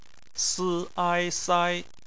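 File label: "sai" bopomofo, Chinese; phonetics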